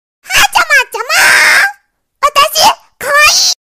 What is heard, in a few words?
very cute; haachama